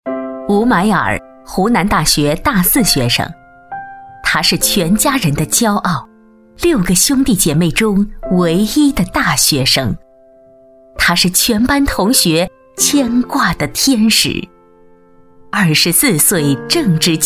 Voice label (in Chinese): 女 台宣 大气, 国语女声配音